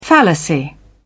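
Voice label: "fallacy", British English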